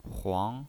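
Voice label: "huang", second tone, pinyin voice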